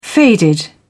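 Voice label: "faded", British English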